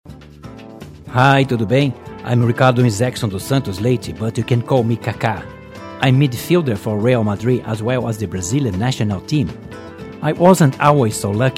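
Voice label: Portuguese, English Accent; GoLocalise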